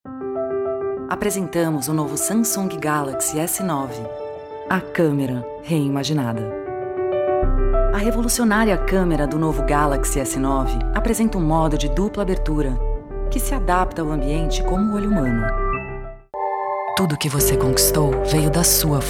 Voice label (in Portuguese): locutora